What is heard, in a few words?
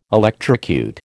"electrocute", American